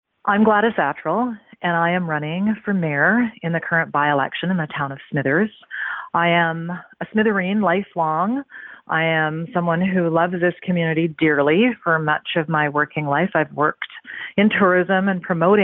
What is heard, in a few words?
NEWS PROMO